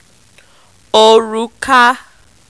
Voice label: "oruka", Yoruba